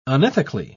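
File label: "unethically", British English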